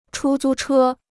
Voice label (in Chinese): "出租车", Chinese